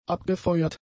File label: "abgefeuert", German; adjectives